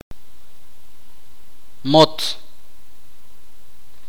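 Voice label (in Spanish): raíz, itza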